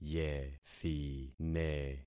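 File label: "yethine", concatenated syllables; Affixing experiment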